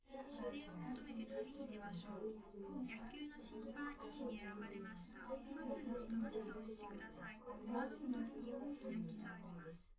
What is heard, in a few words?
female liverec; underdetermined; SiSEC11